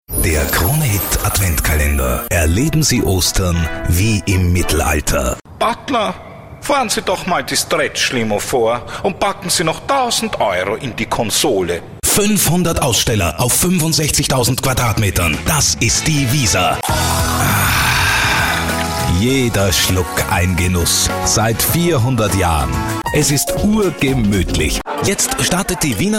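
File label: German Austrian Male, smooth, recited, commercial; 35-70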